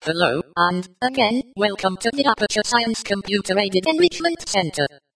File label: espeak; glados